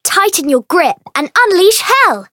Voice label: variable chant; thillelille

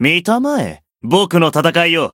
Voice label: chant, jp; nero

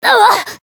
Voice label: Voice damaged

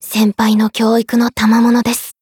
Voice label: voice jp; maturika

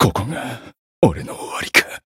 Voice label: Voice retire, jp

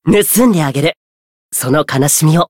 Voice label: chant, jp

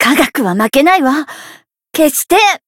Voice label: retire, jp; ashuthia

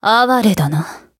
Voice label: finish, jp; isuka

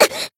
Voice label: damaged, jp; harle

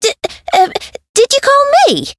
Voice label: variable chant; yuna

